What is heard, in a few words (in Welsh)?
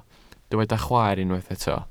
"chwaer", Welsh